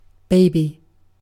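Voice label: "baby", British English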